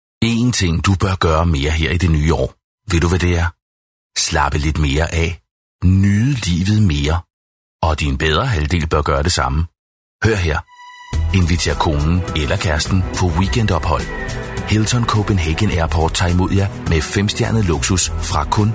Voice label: Danish M Radio Ad; voice over